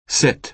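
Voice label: "sit", American English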